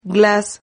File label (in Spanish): "glas", Silabas